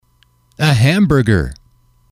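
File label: "a hamburger", male, English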